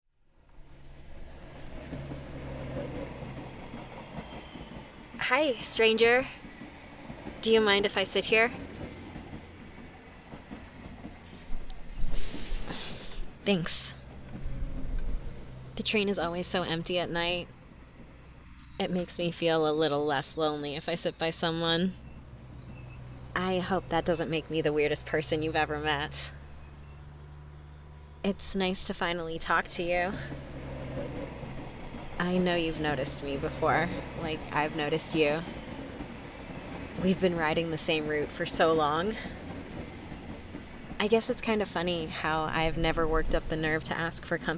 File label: TRAIN